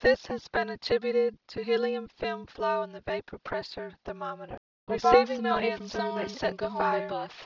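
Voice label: mixture 2 speakers female inharmonic stretch; Inharmonic: stretched; Timit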